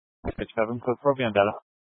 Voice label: Police Department Dispatch, scanner

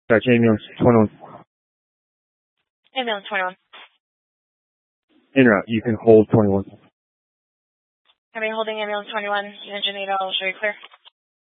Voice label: Grand Junction Fire Department Dispatch

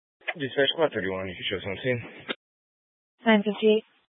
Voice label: Grand Junction Fire Department Dispatch

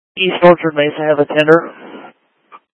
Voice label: Incident Tac 1, scanner

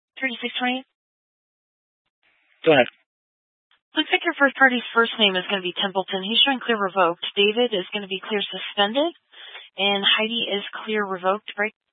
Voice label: Police Dispatch, scanner; Police 1 Dispatch

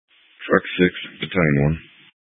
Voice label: Fire Dispatch

